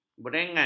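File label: "brengan", Malay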